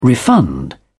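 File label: "refund", British English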